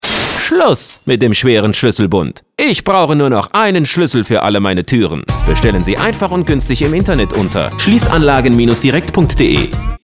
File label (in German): radiospot; schliessanlagen direkt